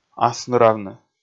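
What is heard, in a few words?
"асныравны", Komi, male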